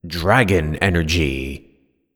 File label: Dragon Energy Voiceover